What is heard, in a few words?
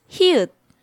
"Hieut", Korean